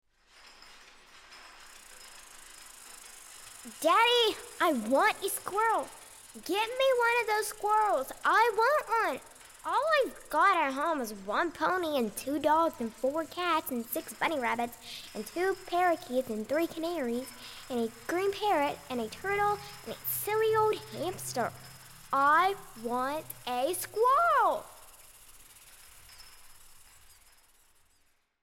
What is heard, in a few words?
spot WITH EFFECT